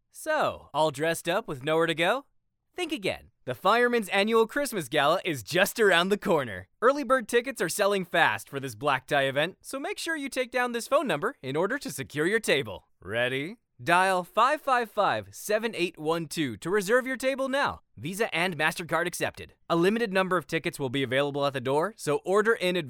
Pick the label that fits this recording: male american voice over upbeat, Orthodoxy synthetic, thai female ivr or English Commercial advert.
male american voice over upbeat